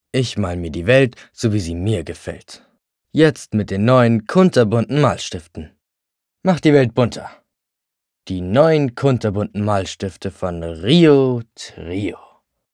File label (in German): Spot, jungen